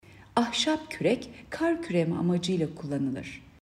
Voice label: turkce